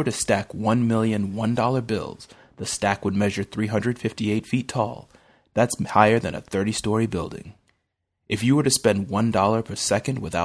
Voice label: Lottery Announcer

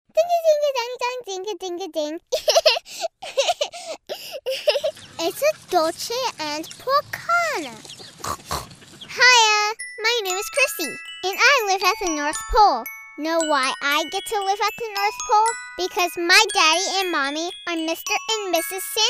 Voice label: Character

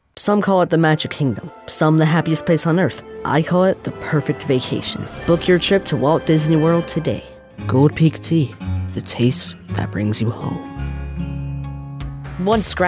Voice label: Commercial

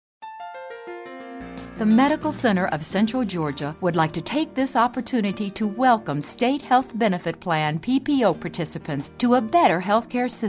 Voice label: narration

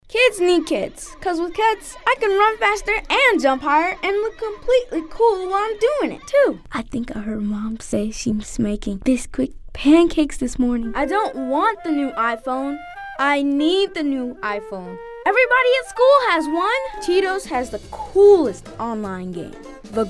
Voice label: commercial

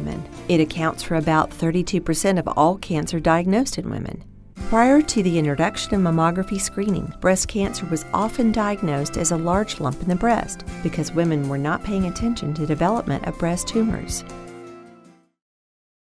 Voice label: Health Care Narration

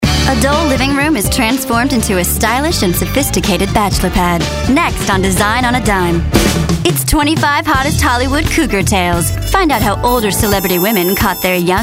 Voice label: Promos

